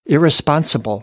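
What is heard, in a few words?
"irresponsible", American English; AmericanBritish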